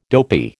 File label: "dopey", American